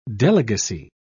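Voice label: "delegacy", British English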